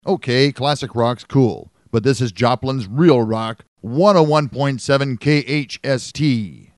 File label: sweeper